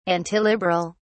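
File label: "antiliberal", British English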